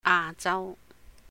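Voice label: "A Jau", Cantonese; Asia; 亞洲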